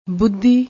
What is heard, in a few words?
"budhi", Punjabi